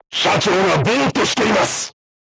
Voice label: voice message commander contused, crew tanks japanese